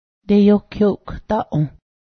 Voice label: dull not sharp, Cayuga